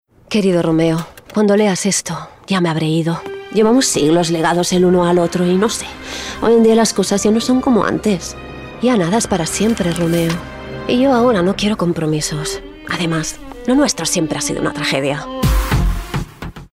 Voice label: female spanish car comercial